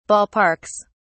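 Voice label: "ballparks", British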